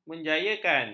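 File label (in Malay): "menjayakan", Malay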